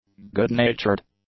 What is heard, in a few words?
"good-natured", english; adjectives